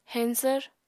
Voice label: "heñsvr", apatani

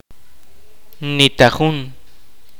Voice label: kaqchikel; anyone no one

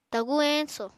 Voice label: "tagur eñso", Apatani

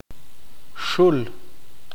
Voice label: "animal", Q'eqchi'

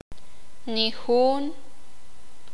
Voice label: "nadie", sakapulteko

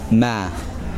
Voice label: grass, Kosraean

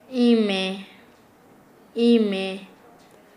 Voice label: Nasa Yuwe; excremento

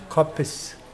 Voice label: bow, Mokilese

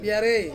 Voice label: "piarei", Ansus; twenty

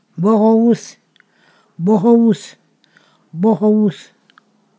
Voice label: "stinginess", Ponosakan

